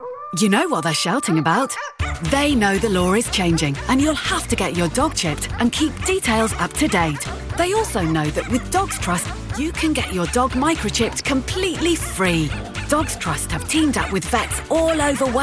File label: commercial; dogs trust